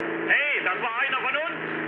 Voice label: player killed ally, mood med, speech ge; War Thunder